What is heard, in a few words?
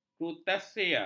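"krustasea", Malay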